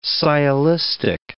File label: "sciolistic", English